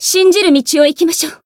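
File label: Voice chant, Japanese; anaberu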